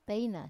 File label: "teina" who, Wamesa